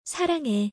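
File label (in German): Koreanisch, "Ich liebe dich"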